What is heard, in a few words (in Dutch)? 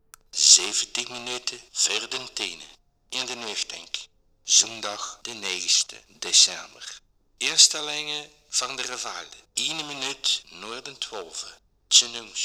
Gents man